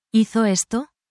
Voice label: spanish, spanish phrases you can use in any workplace